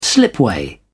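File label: "slipway", British English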